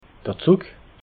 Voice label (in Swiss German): "zugg", alsace